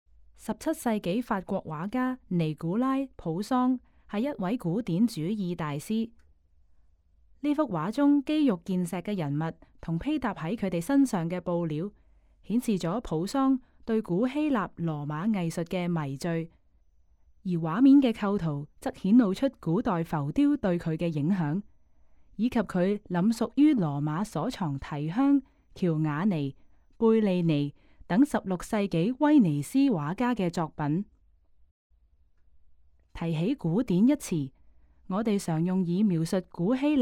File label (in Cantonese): Canto; 酒神的養育; 尼古拉．普桑（1594–1665年）; 約1628年; 布面油畫，80.9 x 97.7厘米; 英國國家美術館; 從波提切利到梵高：英國國家美術館珍藏展